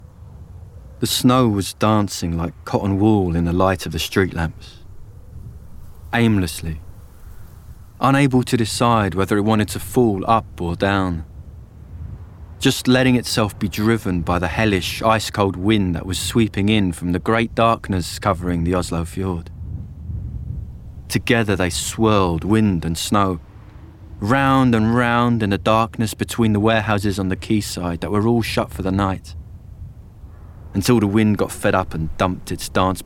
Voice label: Audiobook